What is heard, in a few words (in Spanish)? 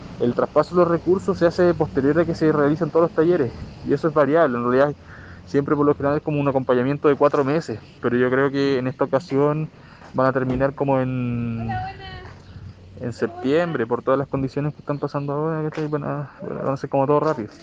cuña; purranque